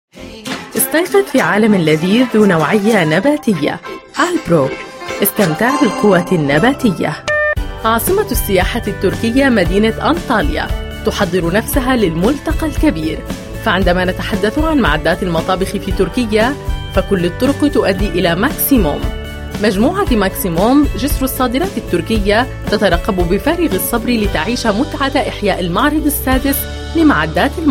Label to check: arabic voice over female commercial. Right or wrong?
Right